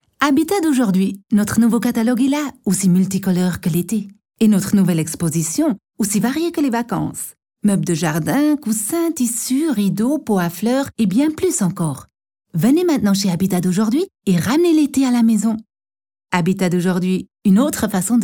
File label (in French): Spot; Habitat 2008